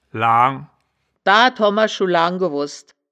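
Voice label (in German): "laang", Wittlicher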